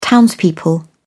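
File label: "townspeople", British English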